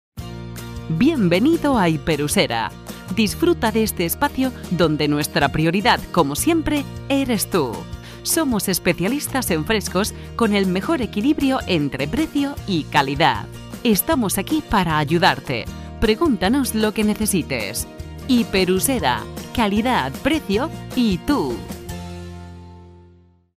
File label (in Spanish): APERTURA FEMENINA; APERTURA VILLAVERDE